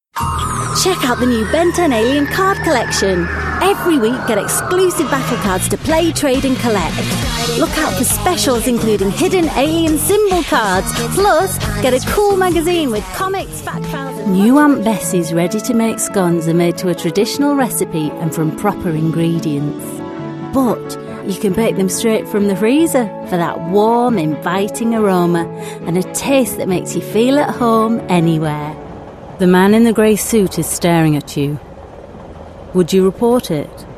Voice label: Brit Asian Yorkshire

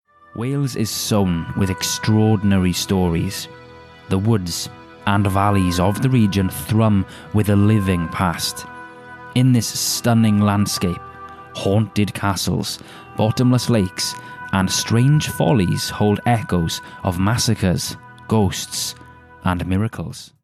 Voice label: Home Studio Read; Welsh, Male, 20s-30s

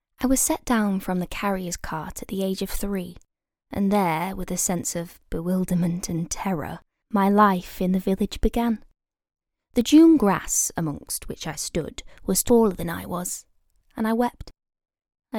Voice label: Audio Book

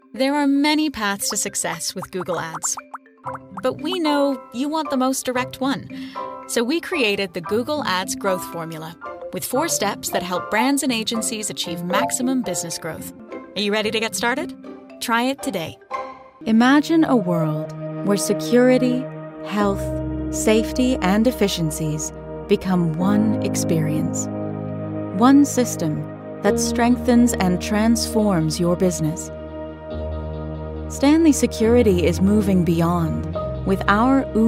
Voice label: corporate; 20-30s. US. Young, friendly and warm. Speaks fluent Arabic. Home Studio

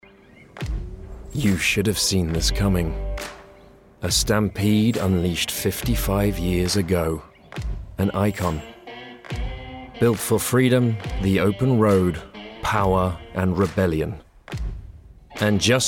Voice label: Commercials, Male. South African.

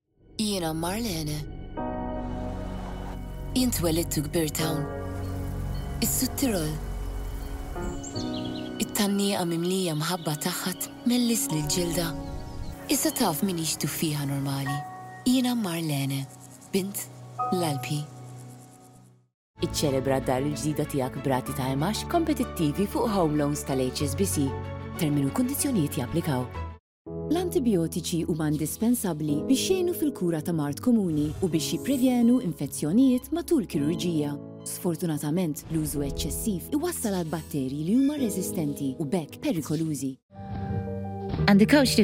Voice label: Maltese

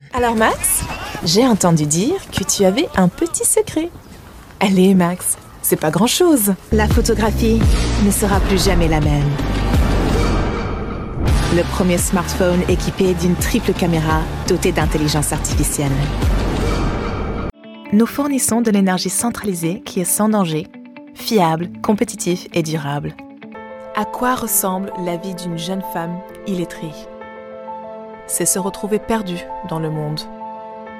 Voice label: French

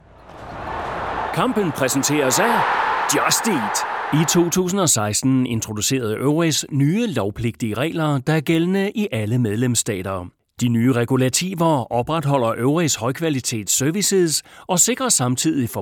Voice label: Danish; Danish, Scandinavian, Male, Home Studio, 20s-30s

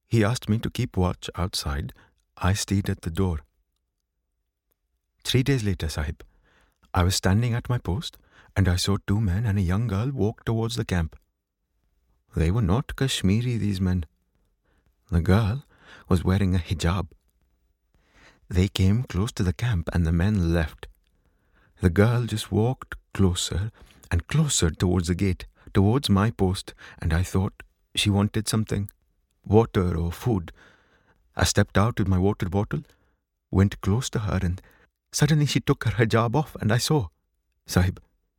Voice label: regional accents

